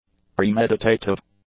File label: "premeditative", english; adjectives